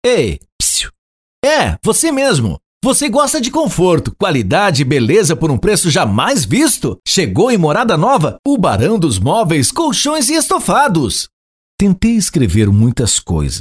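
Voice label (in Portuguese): VOZES MASCULINAS, Padrão Institucional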